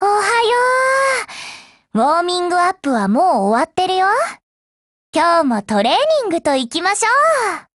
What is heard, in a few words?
HELLO JP; GF Alarm; K3